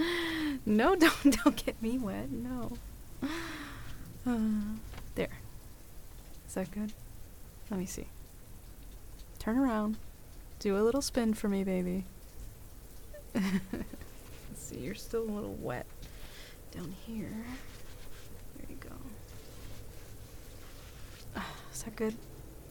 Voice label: f4m asmr sleep aid falling asleep on your tomboy girlfriend s chest cuddles rain; breathing